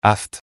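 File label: "aphte", French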